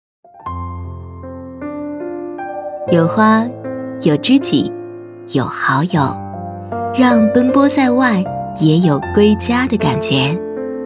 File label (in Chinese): 女 广告 电视电器走心温情; 卡萨帝电视-中秋月圆-为爱团圆